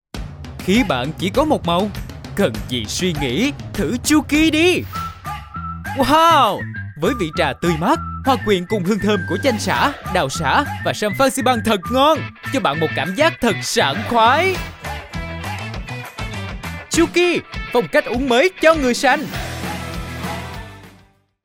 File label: vietnamese promos